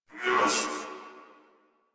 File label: threatened crewmen; Warframe; Corpus Crewmen; Crewman C